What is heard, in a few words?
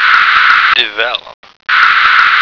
"develope", American